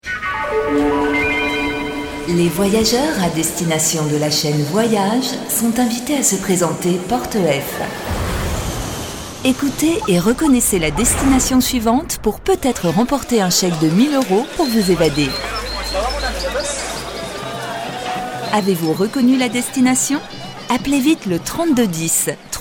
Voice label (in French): pub, complice posee; CHAINE VOYAGE; maquette RTL